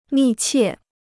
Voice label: "密切", Chinese

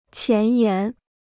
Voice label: "前沿", Chinese; 前沿 (qián yán); front-line; forward position

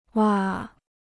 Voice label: "哇", Chinese

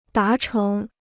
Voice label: "达成", Chinese

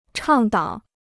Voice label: "倡导", Chinese

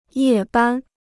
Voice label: "夜班", Chinese

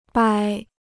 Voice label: "掰", Chinese

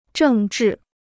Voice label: "政治", Chinese

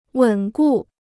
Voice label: "稳固", Chinese

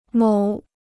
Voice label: "某", Chinese

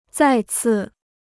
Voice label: "再次", Chinese

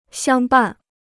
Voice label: "相伴", Chinese

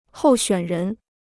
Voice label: "候选人", Chinese

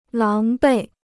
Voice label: "狼狈", Chinese

